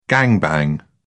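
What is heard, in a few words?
"gang bang", English, British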